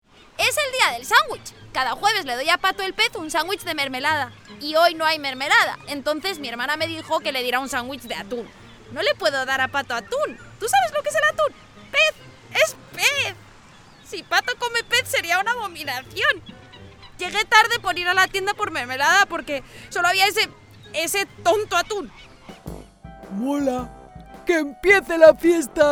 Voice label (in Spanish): Animación